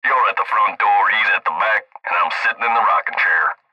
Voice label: Cb Trucker Radio; Cb Radio Male YouRe At The Front Door Hes In The Back and IM Sitting In The Rocking Chair; Radio Voice; Blastwave

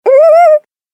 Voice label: Cartoon Game Voice Oo ooo oo ooo

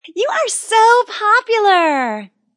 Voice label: Teen Says You're So Popular; Talking

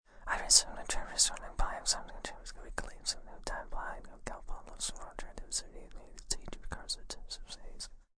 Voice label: Whisper Random, Whispering, male

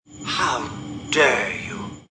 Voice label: How Dare You, Male; Talking Sound Effects

